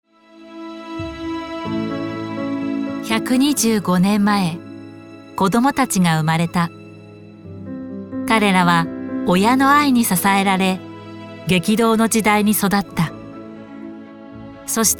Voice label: company introduction